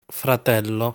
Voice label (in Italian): "Fratello", Italian